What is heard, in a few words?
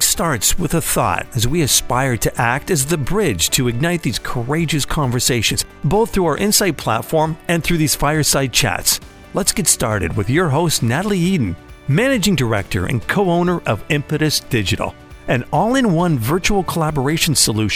Professional Podcast Intro